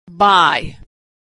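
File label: "buy", American English; vowel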